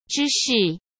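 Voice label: "芝士", Mandarin Chinese; hsk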